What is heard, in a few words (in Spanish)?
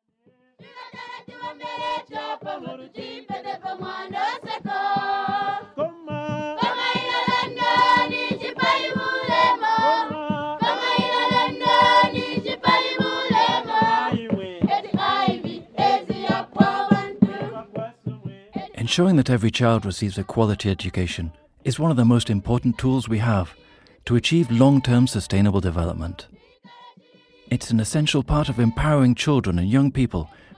locución para videocorporativo locutor inglés británico; nativo; fundacion humana